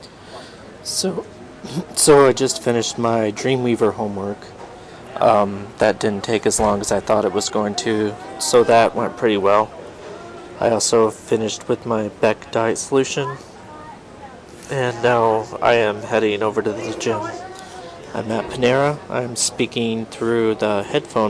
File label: headphone microphone test